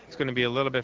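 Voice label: "gonna be a little bit", American English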